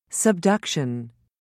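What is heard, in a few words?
"subduction", British English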